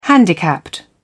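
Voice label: "handicapped", British English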